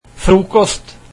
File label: breakfast, norwegian